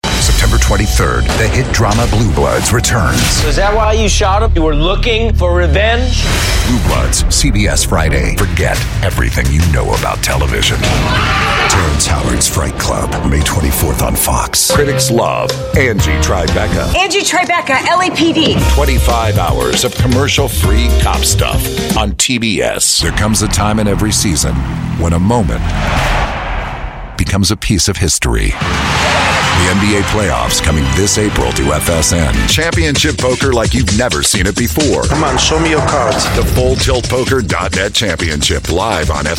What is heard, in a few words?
US English promo